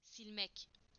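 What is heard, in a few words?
"to erase", Turkish; PictureDictionary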